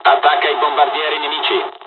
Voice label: bomber attack, dialogs italian; War Thunder